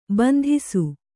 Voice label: "ಬಂದಿಸು", Kannada